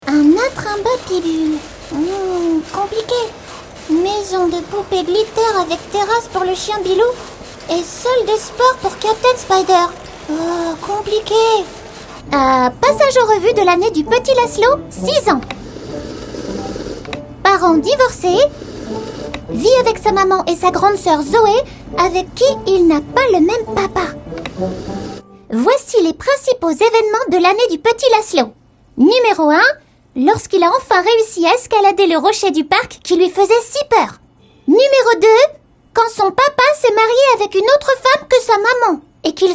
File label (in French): LIVRE AUDIO FR; voix-off; lutin